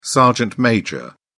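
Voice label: "sergeant major", British English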